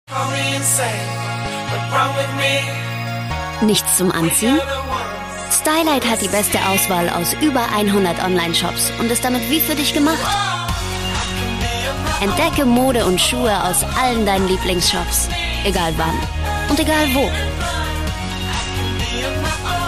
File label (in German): Freundlich